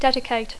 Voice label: "dedicate", English